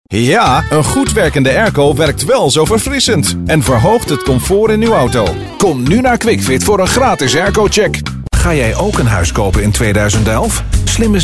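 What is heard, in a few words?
Dutch energetic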